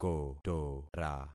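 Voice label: "kotora", concatenated syllables; Affixing experiment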